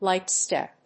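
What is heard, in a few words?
"light step", English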